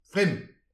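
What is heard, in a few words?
"frim", Breton; petit precis de prononciation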